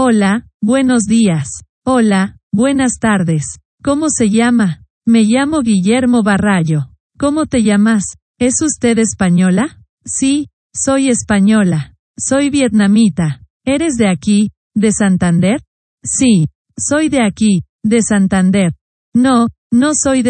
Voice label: Spanish